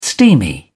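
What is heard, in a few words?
"steamy", British English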